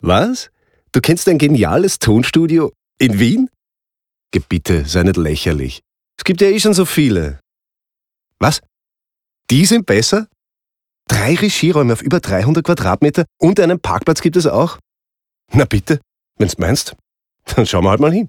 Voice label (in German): lächeln